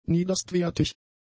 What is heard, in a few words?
"niederstwertig", German; adjectives